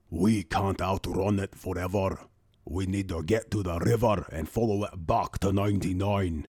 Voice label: Seasoned warrior with commanding presence, strength, and authenticity, Audiobook Hero; Vargot